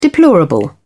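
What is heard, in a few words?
"deplorable", English, British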